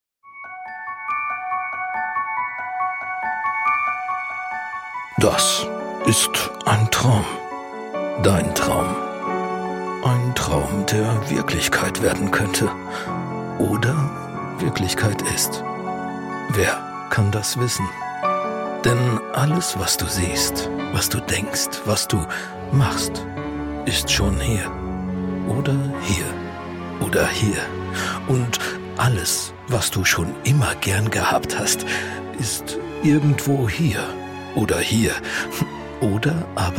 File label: Senior narrative mystic cool; Das Spiel